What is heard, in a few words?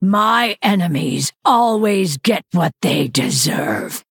Female Night Elf Threaten